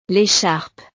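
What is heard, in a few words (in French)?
"lecharpe", French; la bufanda; ropa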